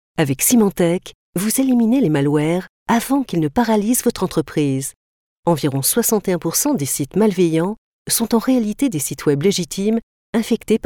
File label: french announcer corporate